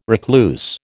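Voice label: "recluse", American English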